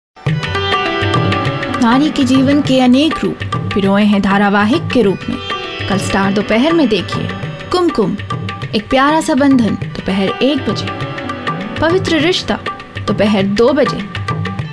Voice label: hin promo, delhi; female voice

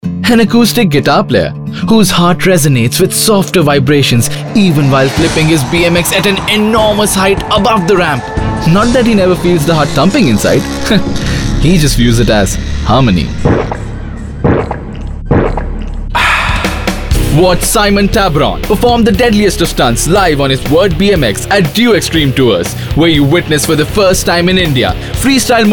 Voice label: English, male voice

